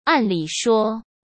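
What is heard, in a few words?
"按理说", Mandarin Chinese; chinese-flashcards; hsk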